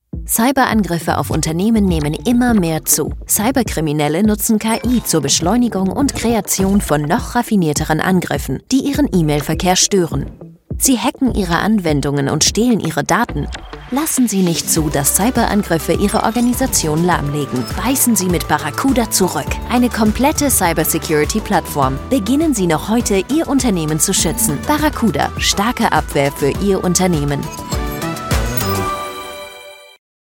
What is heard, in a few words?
german female corporate